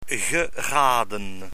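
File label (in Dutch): "geraden", Dutch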